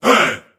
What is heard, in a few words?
hey group of men; ooh ahh